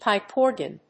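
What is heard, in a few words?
"pipe organ", English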